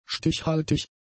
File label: "stichhaltig", German; adjectives